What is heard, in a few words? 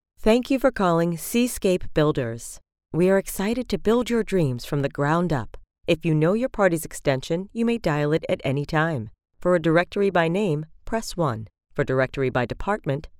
english international ivr